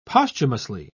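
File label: "posthumously", British English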